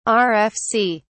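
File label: "rfc", British English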